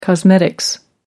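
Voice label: "cosmetics", British English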